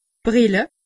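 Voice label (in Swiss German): "brille", Alsatian; medecine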